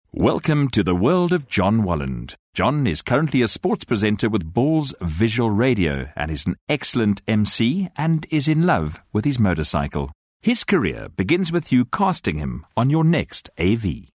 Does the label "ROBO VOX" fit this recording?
No